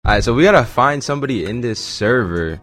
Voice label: deep voice troll; made with Voicemod